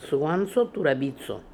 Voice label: "Sooanso Toorabizo", pronounciations; Fourth Way; Beelzebub